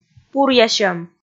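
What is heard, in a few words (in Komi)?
"пуръясьӧм", Komi, female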